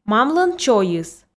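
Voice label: "мамлӧн чойыс", Komi, female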